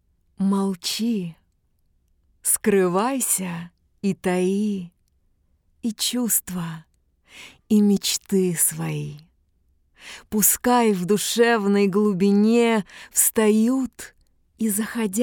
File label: silentium poetry Russian